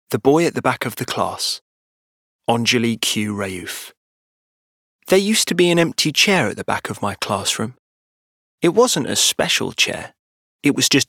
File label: Audio Book